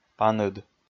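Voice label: "паныд", Komi, male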